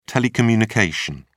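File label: "telecommunication", British English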